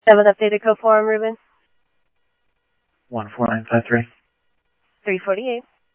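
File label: Mesa Police Department, scanner audio trunk; Mesa Simulcast; MESA PD Superstn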